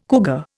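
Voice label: "cougar", Indian English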